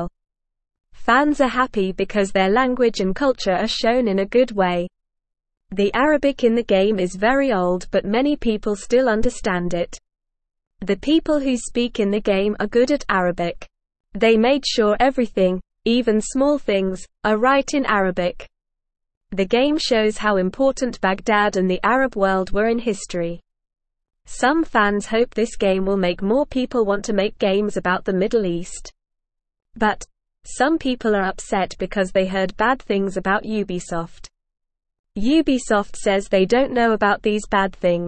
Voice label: English Newsroom Beginner NORMAL Reading; New Video Game Shows Arabic Language and Old Baghdad